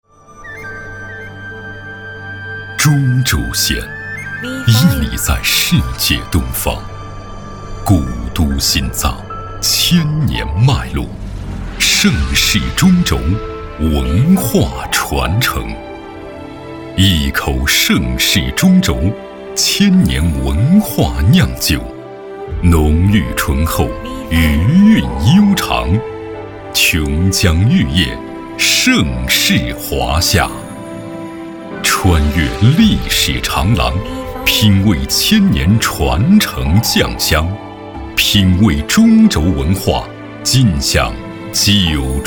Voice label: 男 宣传 酒类 片 历史厚重; 中轴线

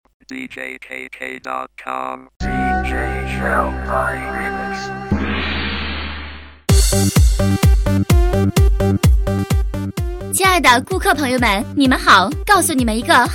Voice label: 女 促销, 磁性; 磁性女配; 国语女声配音; 裤子厂家直销

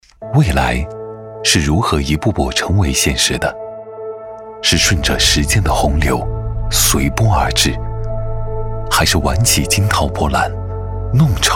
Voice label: 男-科技感专题-互联网

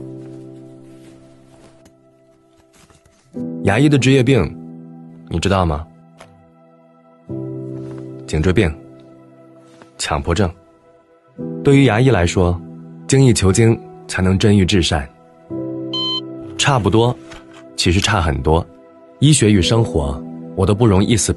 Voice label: 男 中文 素人感 牙医宣传片

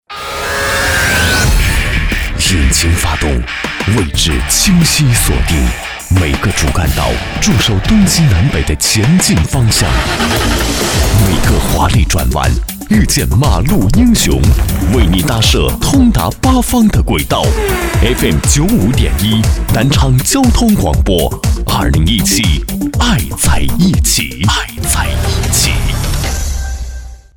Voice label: 电台广告; 南昌交广频率宣传-遇见马路英雄

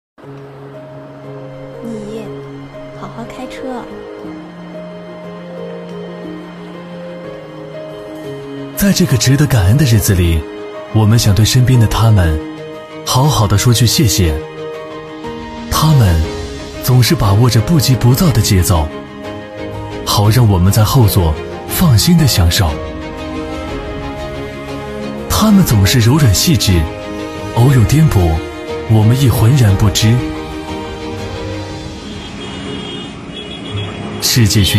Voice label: 男 广告; 荣威i5

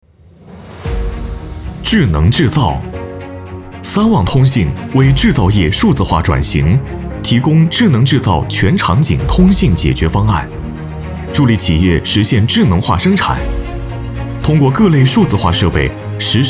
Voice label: 男 专题宣传 科技大气力度 通信

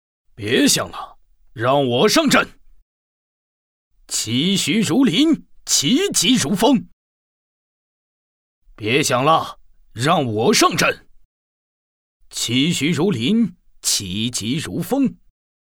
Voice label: 男 中年 敦厚硬汉

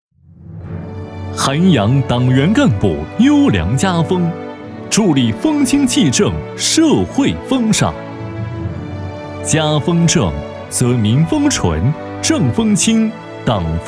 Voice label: 男 汇报 浑厚有力; 党风家风

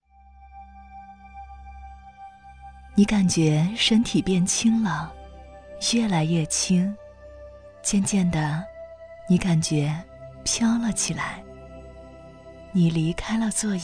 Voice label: 女 专题 冥想

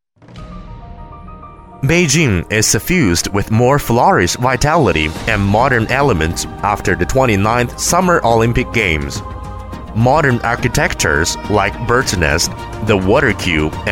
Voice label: 英男 沉稳大气 城市介绍 英文

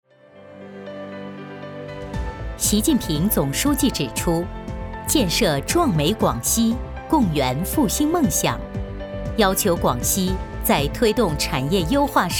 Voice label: 女 专题 党政汇报片

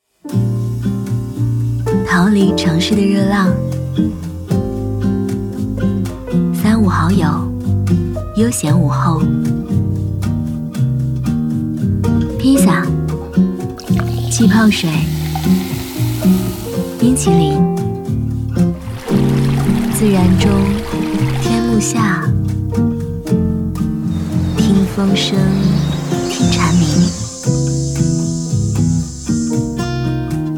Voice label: 女 广告 信用卡饮料清爽散漫放松素人; 夏日美味; 民生24时

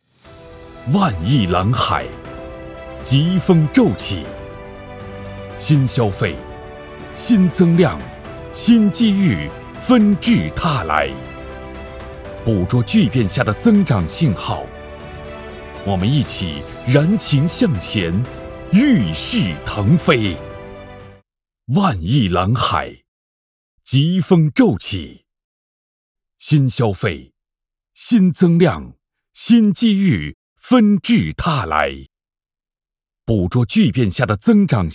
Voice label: 男 会场暖场配音 大气 力量 浑厚 雄壮

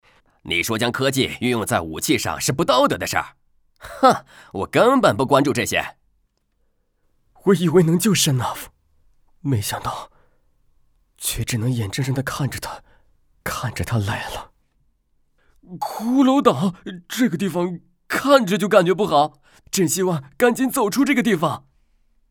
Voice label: 男 角色 变声 士兵; 游戏图特; 士兵瑞恩; 多姆

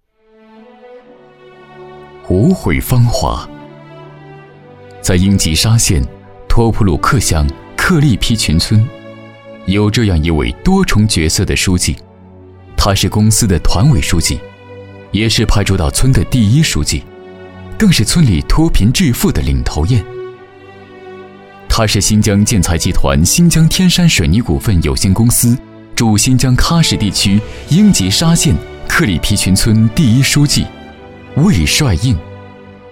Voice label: 男 人物介绍; 无悔芳华

